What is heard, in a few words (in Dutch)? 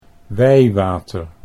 "wijwater", Dutch